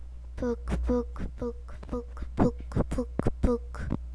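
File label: "book", spoken word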